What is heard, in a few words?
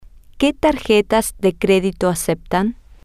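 Spanish, which credit cards do you accept, female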